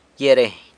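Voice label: "gyia reiny", San Lucas Quiaviní Zapotec; poinsettia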